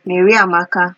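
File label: "mary amaka" Nigerian Pidgin, female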